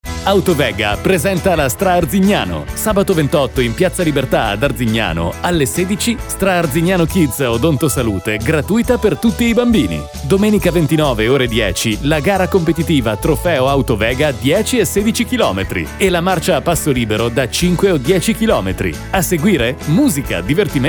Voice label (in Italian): annuncio radio